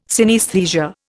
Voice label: "synaesthesia", Indian